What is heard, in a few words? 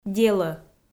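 "делӧ", Komi, female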